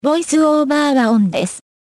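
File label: Japanese VoiceOver On; EFI Audio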